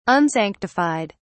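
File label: "unsanctified", English, British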